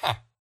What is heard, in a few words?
yes, villager; mob; Minecraft